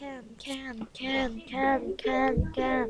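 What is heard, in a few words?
"can", spoken word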